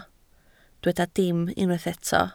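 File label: "dim" Welsh, spoken word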